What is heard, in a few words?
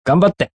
Japanese Do Best, Male; Talking Sound Effects